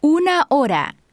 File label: spanish 9 hour; samsung YP-U5; Audio User Interface